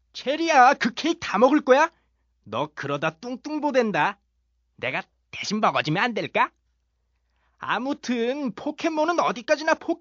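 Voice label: Korean male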